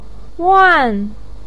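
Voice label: "wan4" Chinese syllable, pinyin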